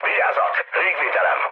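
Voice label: Hungarian damaged aa mood med, speech; War Thunder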